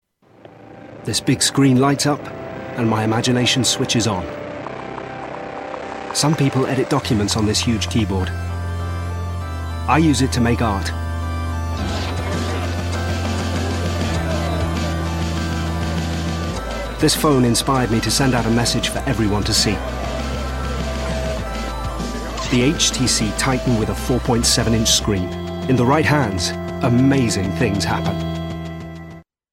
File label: commercial; htc titan